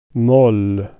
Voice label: "minor music", swedish